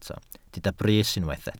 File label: "brys", Welsh